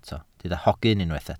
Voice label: "hogyn" Welsh

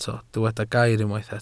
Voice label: "gair", Welsh

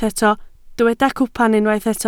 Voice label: "cwpan", Welsh

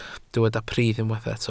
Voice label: "pridd" Welsh

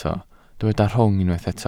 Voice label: "rhwng", Welsh

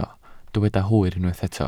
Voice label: "hwyr", Welsh

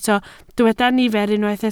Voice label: "nifer", Welsh

